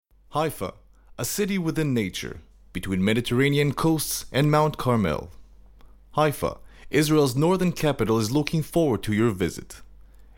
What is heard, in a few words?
english Narration; Haifa Tourist Board